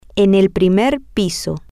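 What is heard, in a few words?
Spanish, female; on the first floor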